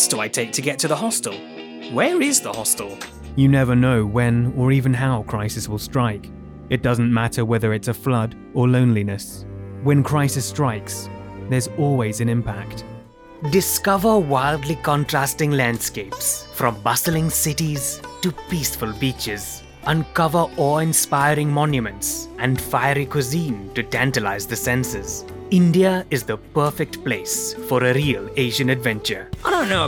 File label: commercial reel